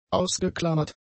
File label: "ausgeklammert", German; adjectives